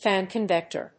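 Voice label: "fan-convector", English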